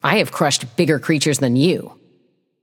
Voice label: taunt directed; eset; bakasura